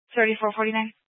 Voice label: Police Dispatch, scanner; Police 1 Dispatch